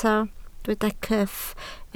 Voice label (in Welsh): "cyff", Welsh